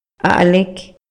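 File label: Maya, male; HABLA Y LENGUAJE; INALI; MayaYuc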